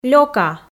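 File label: "лёка", Komi, female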